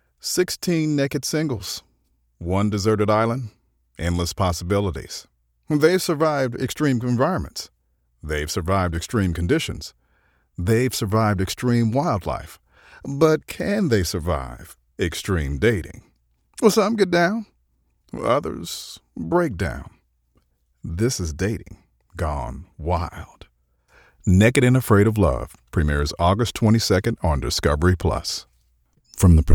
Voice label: dry read promo, professional male voice over